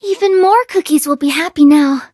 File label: level up, en; Cookie Run